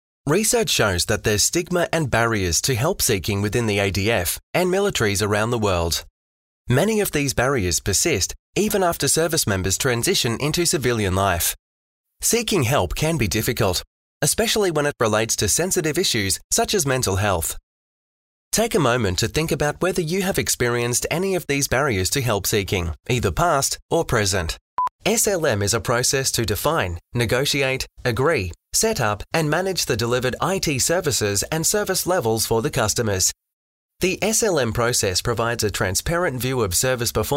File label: e-Learning